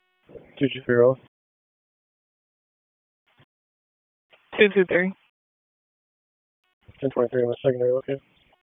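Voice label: Raleigh Police Northeast District Dispatch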